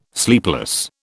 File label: "sleepless", British